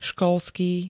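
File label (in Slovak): "školský", Slovak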